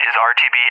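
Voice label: "is RTB", English; DCS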